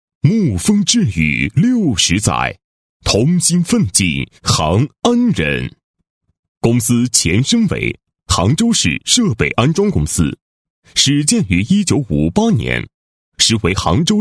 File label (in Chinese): 男 专题 企业宣传片, putonghua